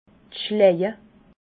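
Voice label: "schlaje", Alsatian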